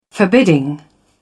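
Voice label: "forbidding", en, uk British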